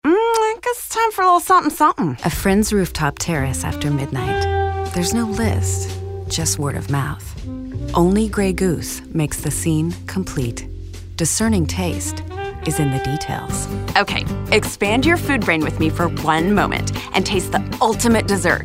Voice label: F Commercial, voiceover womens

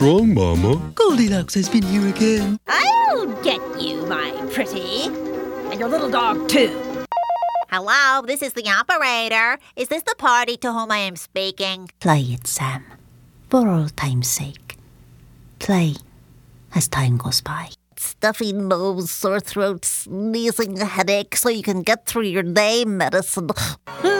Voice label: voiceover, character, women

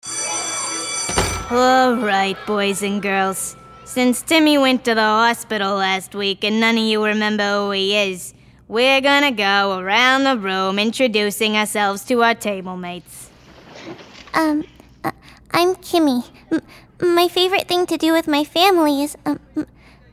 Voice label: voiceover, character, women

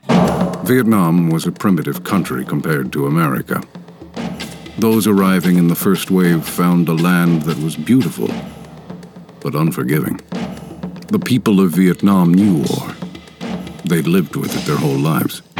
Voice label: voiceover narration, men

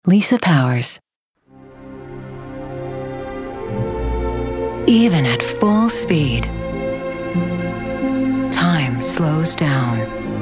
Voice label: F Commercial

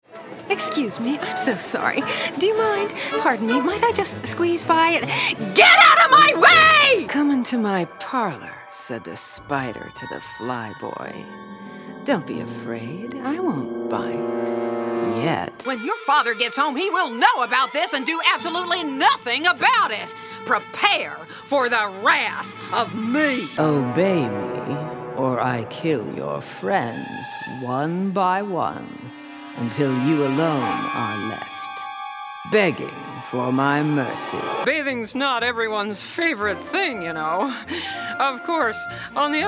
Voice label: voiceover, character, women

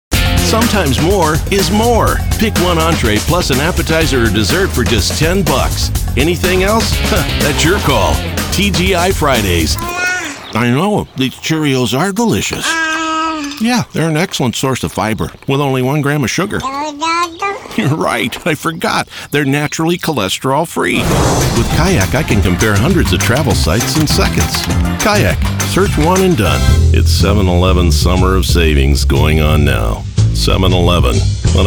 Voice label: M Commercial

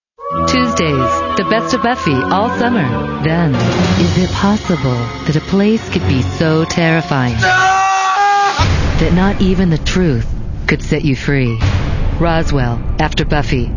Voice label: voiceover character women

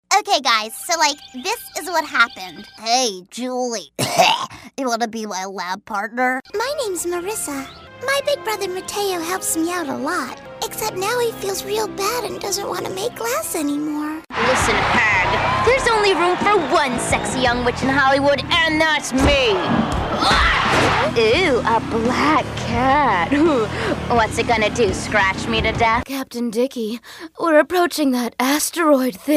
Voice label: voiceover, character, women

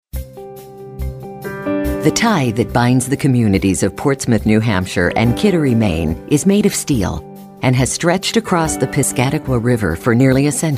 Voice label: Corp, voiceover narration women

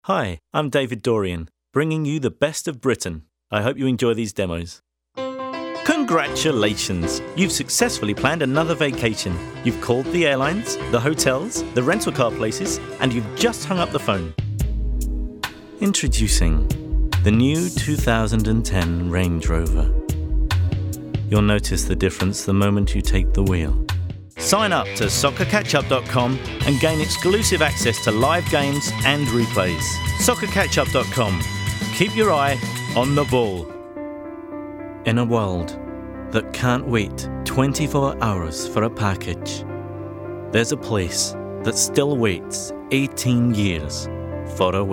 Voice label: M Commercial

